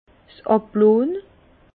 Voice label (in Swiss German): "ablonn", Bas Rhin; vin; décuvage